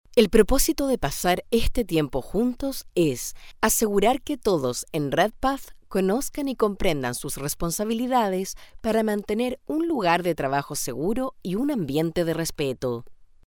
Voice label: chilean voiceover locutora chilena; Banco de voces de locutores chilenos